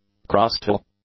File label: "crustal", English; adjectives